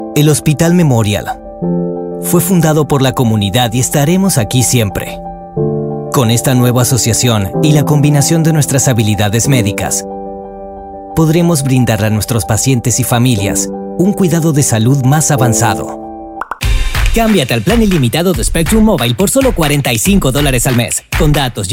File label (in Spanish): neutro, espanol neutro